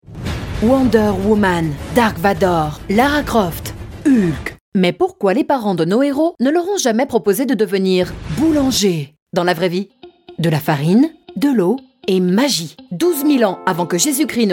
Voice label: french voice documentary

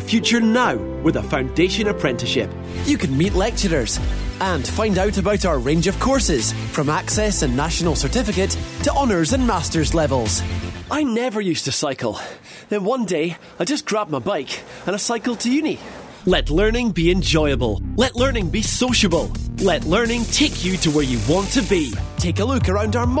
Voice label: scottish commercial college uni

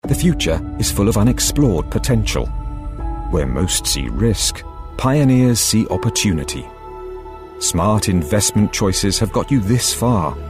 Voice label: commercial

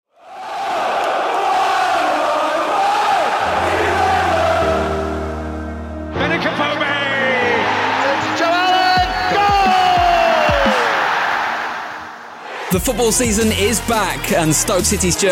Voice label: young northern, events

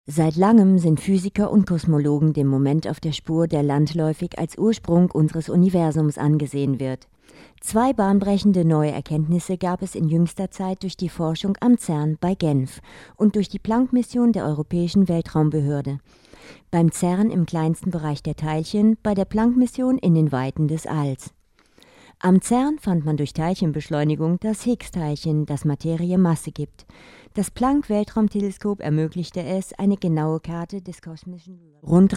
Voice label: german female narration

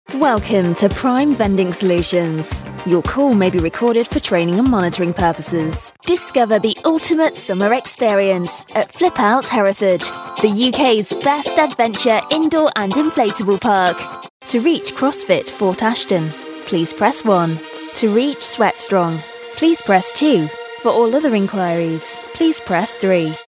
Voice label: ivr on hold

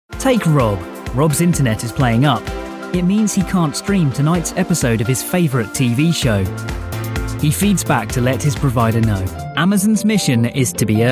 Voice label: web videos and corporate

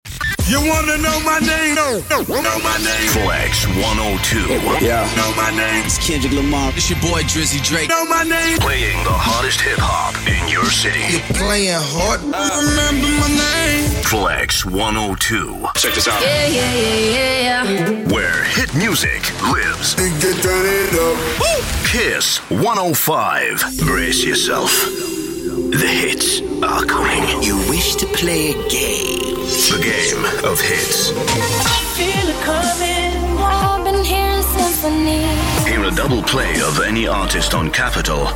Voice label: imaging

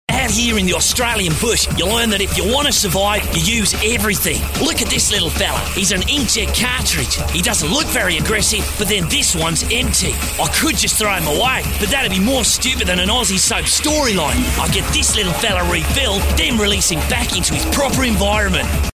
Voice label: australian explorer

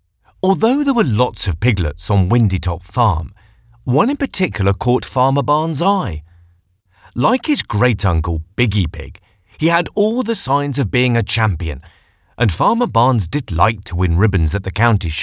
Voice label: audiobook

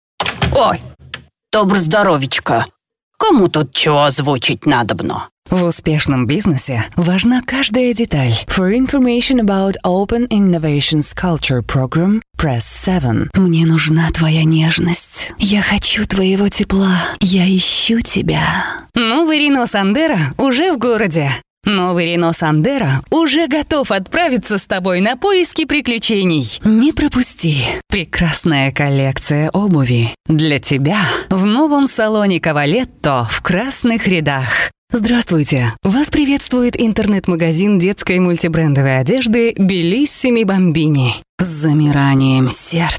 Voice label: russian female; showreel

